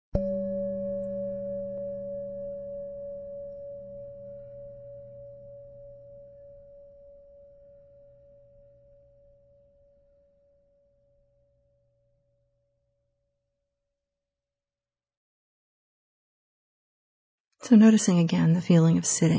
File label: breath practice, mindfulness; caminomedicalgroup